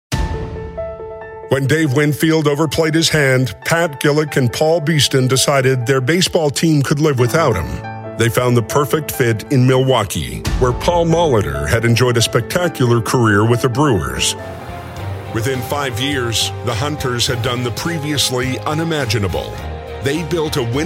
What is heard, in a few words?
Voice Talent Sports Narration